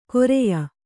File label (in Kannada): "ಕೊರೆಯ", Kannada